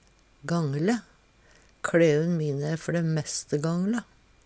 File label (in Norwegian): "gangle", Numedalsmål; Klæun mine æ før dæ meste gangle.; brukbar, til nytte; Adverb